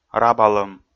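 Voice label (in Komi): "рабалӧм", Komi, male; audio dict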